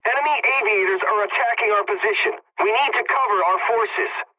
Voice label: pilot point under attack, english; War Thunder

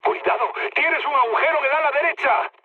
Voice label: right wing, mood high, speech; War Thunder

